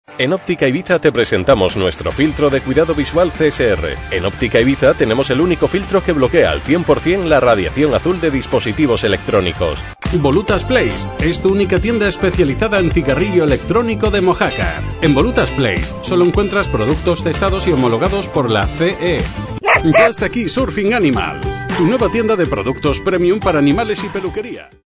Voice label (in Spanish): HOMBRE